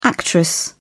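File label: "actress", English, British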